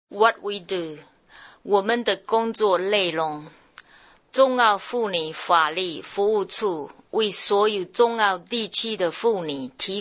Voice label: Mandarin